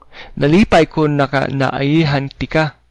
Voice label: meet, cebuano